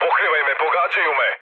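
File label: Serbian aircraft damaged mood med, speech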